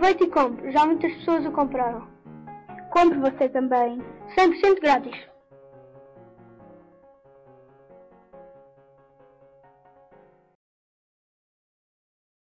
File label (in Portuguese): Anuncio ao Amor